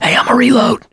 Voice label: reloading quiet, mechanic, survivor, voice